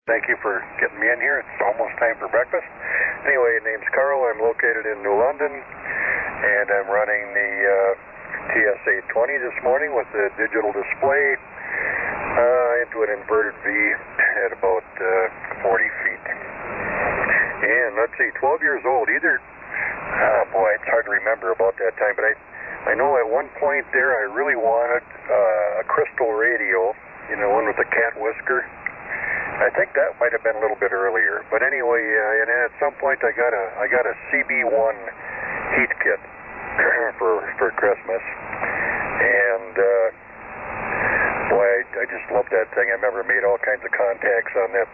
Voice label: Kenwood TS-820S; New London, Wi.